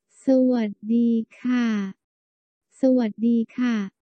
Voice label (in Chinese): 你好女生